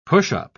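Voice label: "pushup", British English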